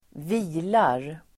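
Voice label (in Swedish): "vilar", Swedish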